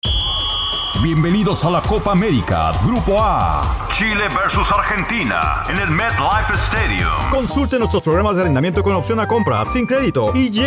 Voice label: Spanish

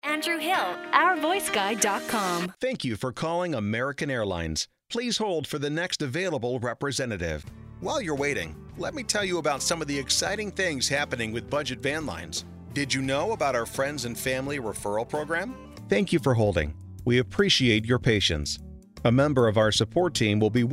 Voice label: Telephony

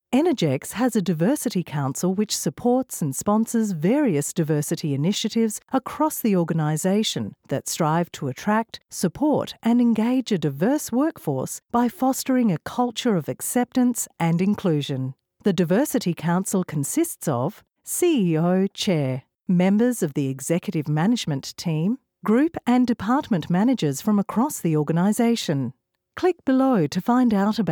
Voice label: E-Learning

